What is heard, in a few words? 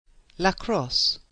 "lacrosse", English